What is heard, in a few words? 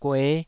"gue5", taiwanese